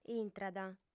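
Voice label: "intrat", female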